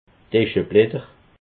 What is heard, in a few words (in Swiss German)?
"escheblatter", alsace